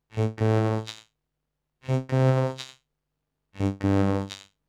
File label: VOICEFX TEKNO 140; Techno2